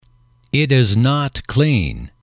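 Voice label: "it is not clean", English, male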